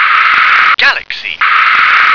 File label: "galaxy", English